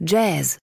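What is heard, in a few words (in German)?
"Jazz", German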